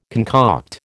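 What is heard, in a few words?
"concoct", American English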